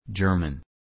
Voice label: German